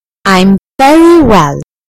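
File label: "very well", British English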